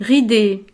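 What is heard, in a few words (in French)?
"ride", French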